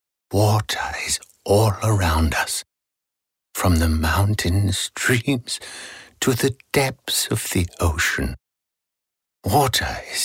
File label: VO showreel voice acting accents